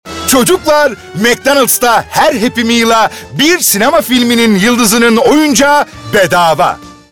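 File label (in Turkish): reklam, Turkish; mcdonalds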